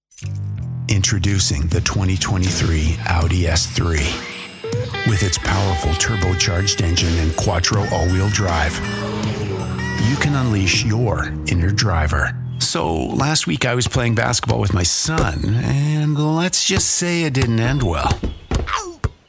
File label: english canadian commercial